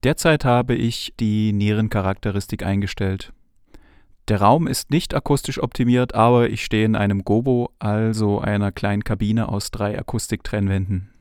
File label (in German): sprache m; warm audio wa-47jr; studiomikrofon